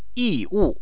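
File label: "yiwu", Chinese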